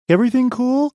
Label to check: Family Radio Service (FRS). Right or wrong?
Wrong